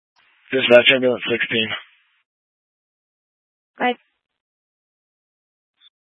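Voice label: Fire Dispatch, scanner audio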